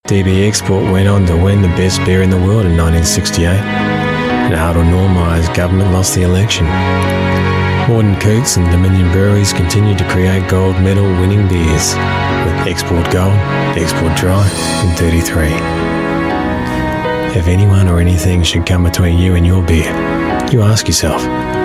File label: Voice Actor; Big Mouth Voices